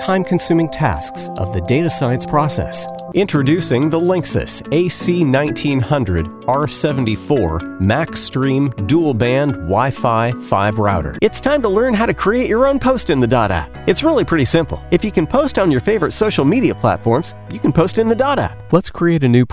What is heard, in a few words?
E Learning